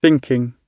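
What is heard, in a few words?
"thinking", British English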